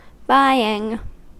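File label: "buying", US English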